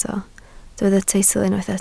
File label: "teitl", Welsh